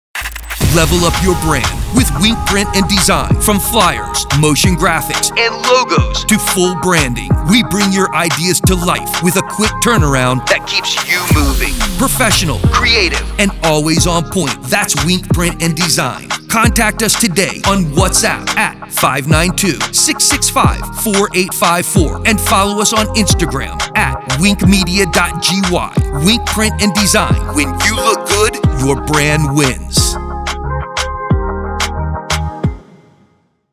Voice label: Promo AD; Wink Print And Design